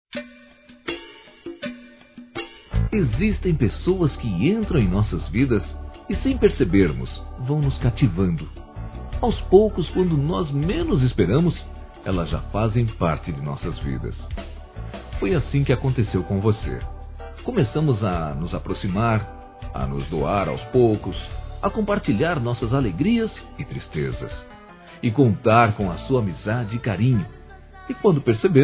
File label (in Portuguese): AMIGO (NÓS ENVIAMOS) M; ANIV. Evangelico